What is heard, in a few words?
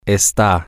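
you are temporary, Spanish, male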